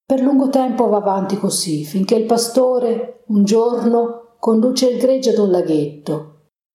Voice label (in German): italienisch